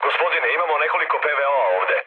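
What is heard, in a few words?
Serbian damaged aa mood low, speech; War Thunder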